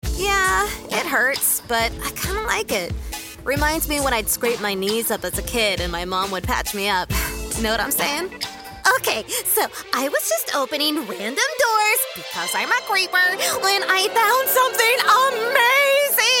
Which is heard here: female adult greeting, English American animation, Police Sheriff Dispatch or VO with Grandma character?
English American animation